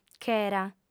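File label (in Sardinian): "chera", female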